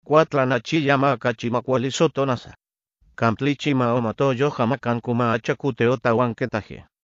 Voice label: udhr pesato